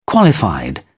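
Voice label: "qualified", English, British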